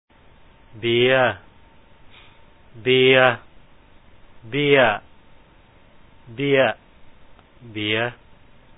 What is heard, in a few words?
bia, ເບຍ/ bia ເບ່ຍ ເບ້ຍ ເບ໊ຍ ເບ໋ຍ, Lao; Reading Exercise